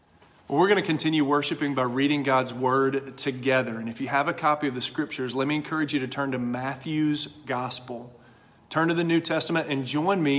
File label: Sermon; Avenue South